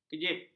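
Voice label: "kejip", Malay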